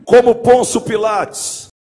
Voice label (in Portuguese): Locutor de rodeio; UOL; Como pôncio pilates pilatos